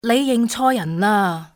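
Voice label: confused, female; XBOX; civ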